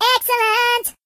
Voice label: "Excellent", Singer

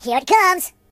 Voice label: Incoming, voices Surfer; hedgewars

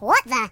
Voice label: "What the", voices; hedgewars

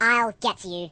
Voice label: "I'll get you", voices

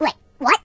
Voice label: "What the", Surfer voice; hedgewars

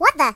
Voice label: "What the", voices; hedgewars

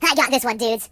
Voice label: Thisoneismine, Surfer

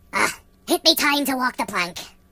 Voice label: "Bye bye", Pirate; hedgewars

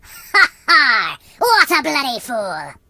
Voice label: Stupid, Pirate

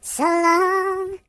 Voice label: "So long", voices; hedgewars; Singer

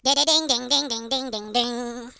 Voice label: Firepunch, Hill Billy; hedgewars

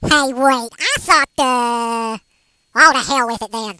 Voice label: HillBilly, Traitor; hedgewars

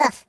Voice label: Ooff, Robot; hedgewars

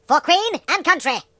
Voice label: "Yes sir", British; hedgewars

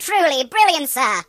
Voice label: "Brilliant", British; hedgewars

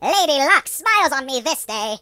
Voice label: "Perfect", British; hedgewars

